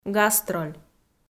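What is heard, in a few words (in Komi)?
"гастроль", Komi, female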